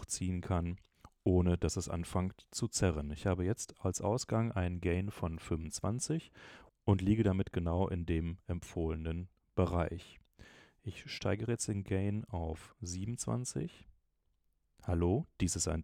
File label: Rode Procaster Gaintest; Rodecaster